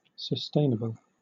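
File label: "sustainable", British English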